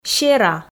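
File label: "сера", Komi, female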